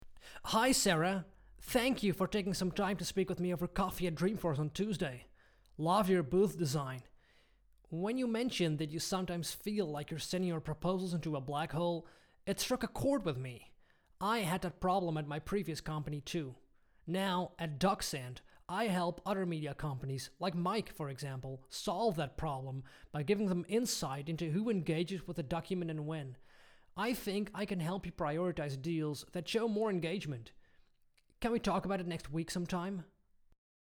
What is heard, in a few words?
US English